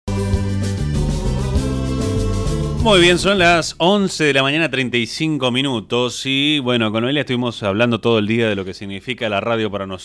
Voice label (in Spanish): Locutora; Chaco